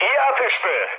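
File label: Turkish player killed enemy, mood med, speech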